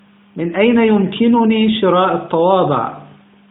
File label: where can i buy stamps Arabic